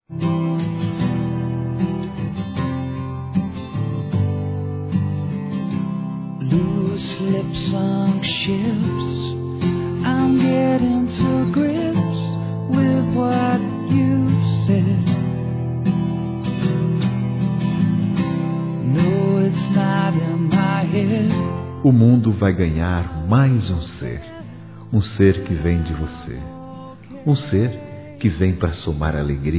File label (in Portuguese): Cumprimentando pela gravidez geral M, Telemensagens; masc; Telemensagens 2017